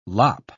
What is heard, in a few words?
"lop", pronounce